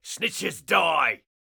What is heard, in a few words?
Pirate Elite Male Alert Line; NPC; dialogue; Heist Thug Spotted